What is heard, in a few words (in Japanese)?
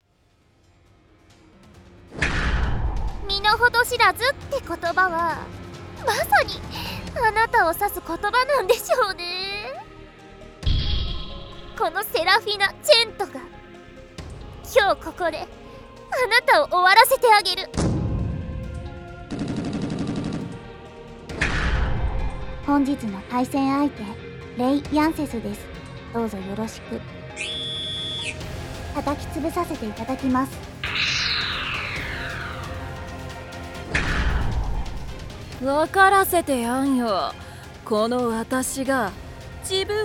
プロモーションVOICE; ヒールなオペ子さん